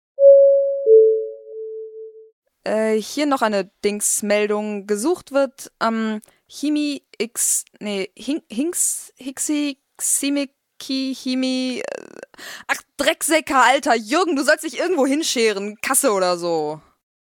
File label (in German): durchsage